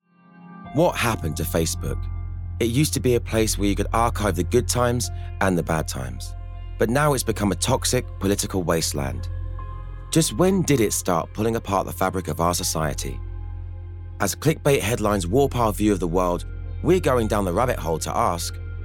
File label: Documentary